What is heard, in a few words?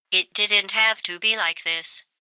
taunt glad hurt; glados; phx new taunts pack